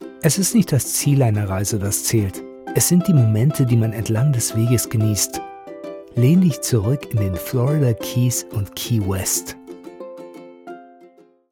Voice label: german audio guides

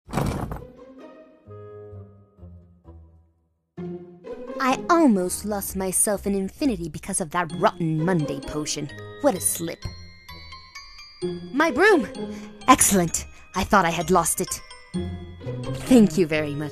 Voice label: english american impersonations; México; locutora; Español (Latinoamericano)

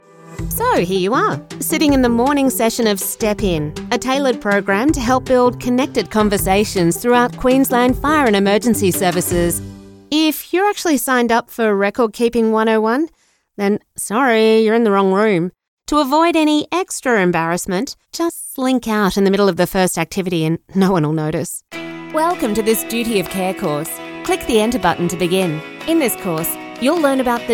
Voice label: english australian e learning

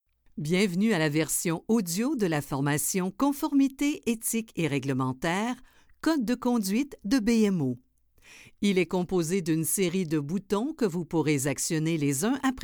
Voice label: french canadian e-learning

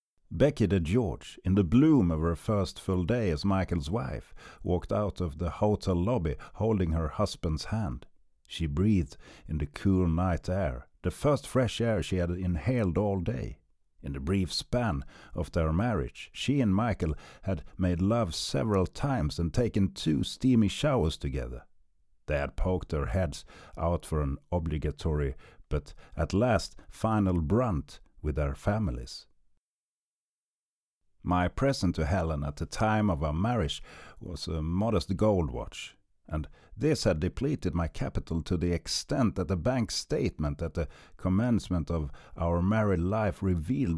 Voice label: english audiobooks

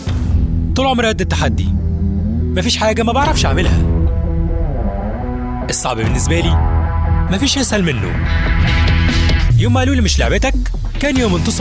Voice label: arabic promos

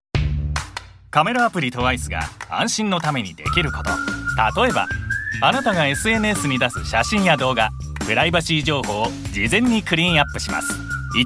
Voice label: japanese commercial

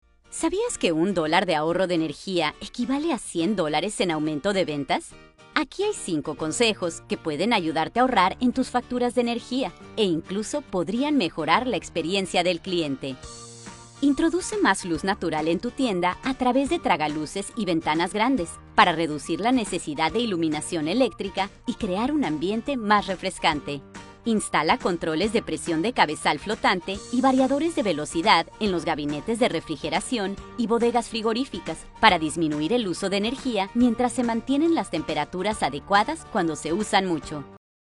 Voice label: spanish latin american corporate videos

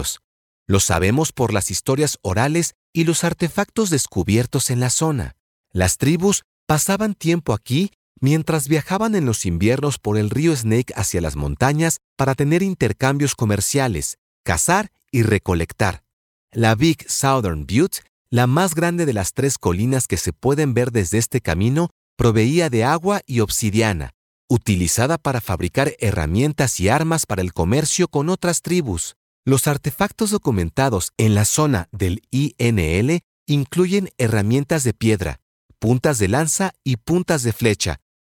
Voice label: spanish latin american audio guides; Español (México)

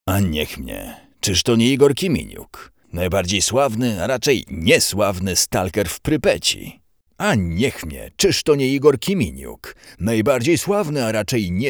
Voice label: polish video games

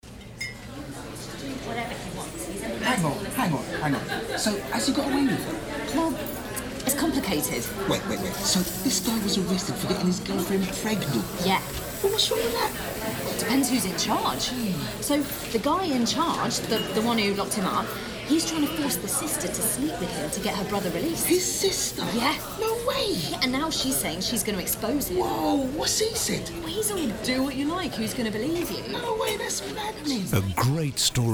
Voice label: english british tv shows

